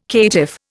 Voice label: "caitiff", Indian